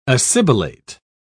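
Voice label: "assibilate", British English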